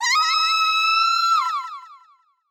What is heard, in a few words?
swordwoman death